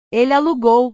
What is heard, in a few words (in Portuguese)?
"ele alugou", portugues